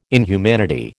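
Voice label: "inhumanity", American English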